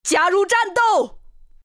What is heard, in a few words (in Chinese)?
attack, zh; Legcom; Dota 2